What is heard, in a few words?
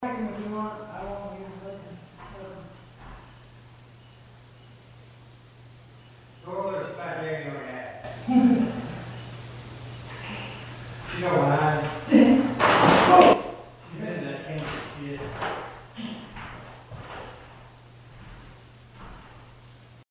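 Female voice; Case 020 Ballard Building; OK amp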